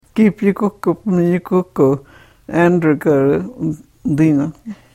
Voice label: karuk